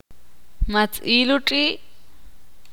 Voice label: ixil; viste el perro